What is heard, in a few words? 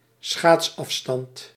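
"schaatsafstand", Dutch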